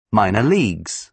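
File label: "minor leagues", British English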